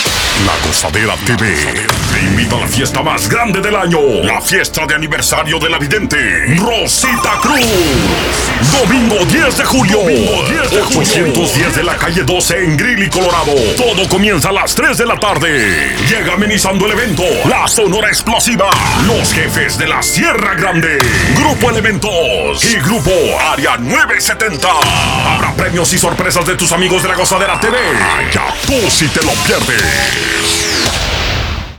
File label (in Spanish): Promo; Para Rosita